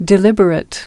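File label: "Deliberate" US English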